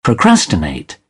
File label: "procrastinate", British; AmericanBritish